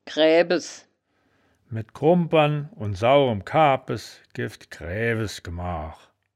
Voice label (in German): "kraeaebes", wittlicher woerterbuch; das wittlicher woerterbuch; woerterbuch vertonung